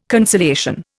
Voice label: "conciliation", Indian